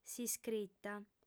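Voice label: "s'iscrita", female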